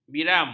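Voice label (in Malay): "biram", Malay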